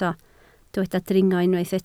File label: "dringo", Welsh